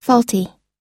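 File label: "faulty", US English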